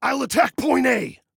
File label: ATTACK, Male